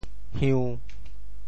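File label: "hiu~1", Teochew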